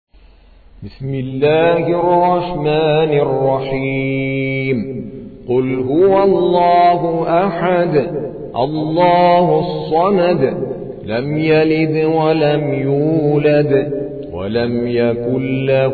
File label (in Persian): ترتیل قاری هندی سوره اخلاص